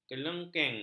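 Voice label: "kelengkeng", Malay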